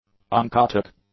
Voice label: "oncotic", English; adjectives